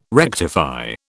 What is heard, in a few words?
"rectify", British